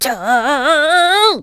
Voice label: Annoyed; Faux Hero annoyed